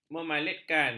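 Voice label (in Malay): "memalitkan", Malay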